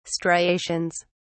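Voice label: "striations", English, British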